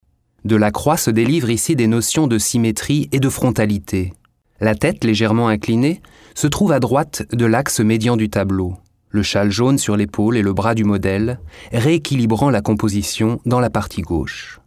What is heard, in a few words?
Audioguide; Französich m.